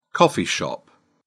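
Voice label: "coffee shop", English, British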